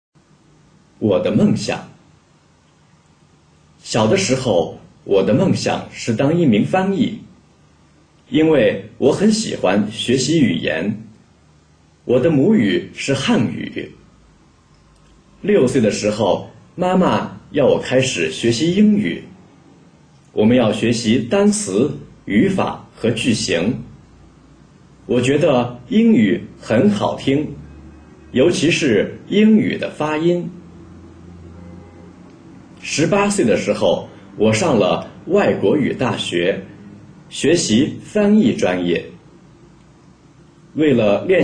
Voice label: chinese reading; my dream